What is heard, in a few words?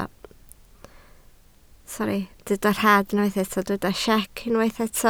"siec", Welsh